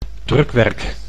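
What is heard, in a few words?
"drukwerk", Dutch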